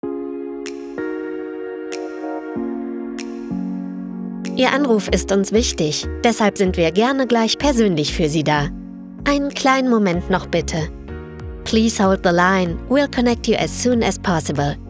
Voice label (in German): Telefonwarteschleife; Franzen